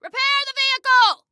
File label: Repair Vehicle, Female